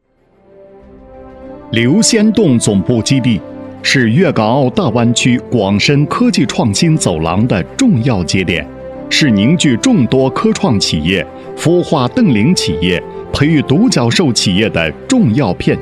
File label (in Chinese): 男 规划专题 大气; 留仙洞六街坊; 男30-柔和 大气浑厚